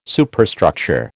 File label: "Superstructure", American